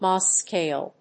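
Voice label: "Mohs scale", English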